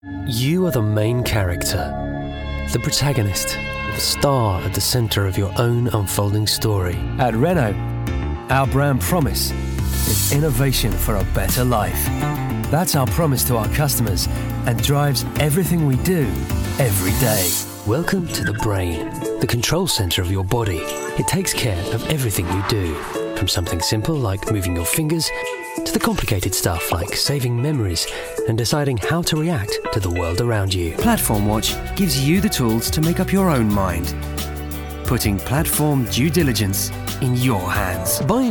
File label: corporate